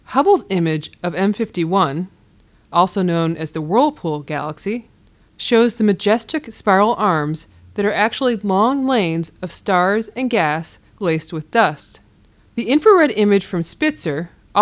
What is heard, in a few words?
narration; m51